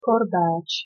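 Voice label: "korbáč", Slovak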